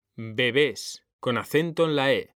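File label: "BEBÉS", Spanish, male; mekanta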